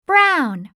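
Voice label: "brown", English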